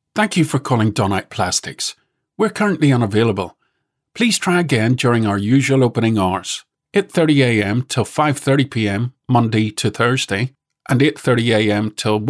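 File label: Irish Northern Male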